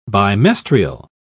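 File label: "bimestrial", English, uk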